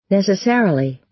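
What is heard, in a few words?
"necessarily", American English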